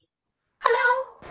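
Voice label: Voice Acting Spanish Female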